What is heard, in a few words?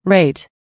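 "rate", English; listening quiz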